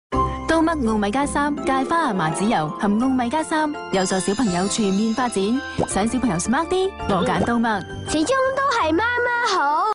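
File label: female Cantonese; 刀嘜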